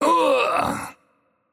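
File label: Italian, death; VO; bloom minigame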